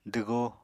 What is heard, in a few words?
"dwgoo", Apatani